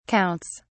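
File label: "counts", British English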